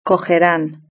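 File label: "cogerán", Spanish; verb-conjugator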